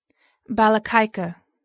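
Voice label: "balalaika", English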